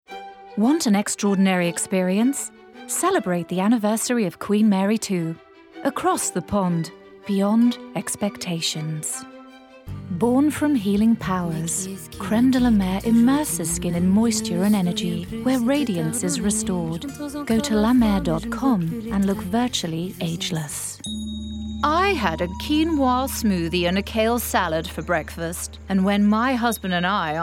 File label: languages female british